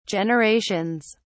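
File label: "generations", British English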